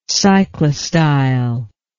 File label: "cyclostyle", english